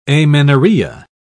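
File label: "amenorrhea", English, British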